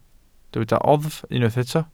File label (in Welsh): "oddf" Welsh